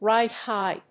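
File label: "ride high", English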